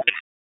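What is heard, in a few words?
Raleigh Police Special Operations Tactical, p25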